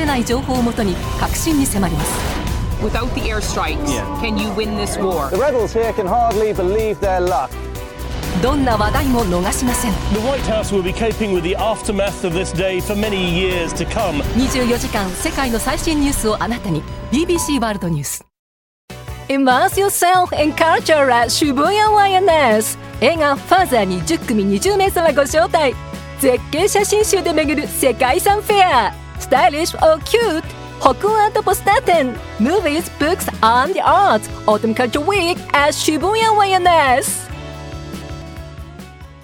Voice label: Commercial Promo, Japanese, Authoritative, Engaging, Stylish, Warm, Clear, Friendly, Sincere